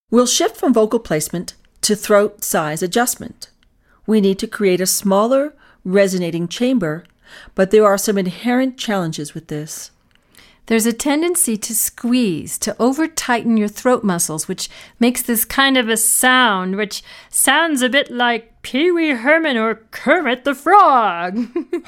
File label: Voice Fem